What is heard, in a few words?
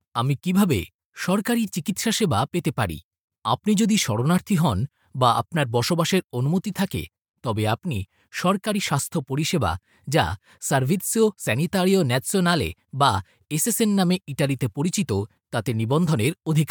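Bengali, Bangladesh, male, voice over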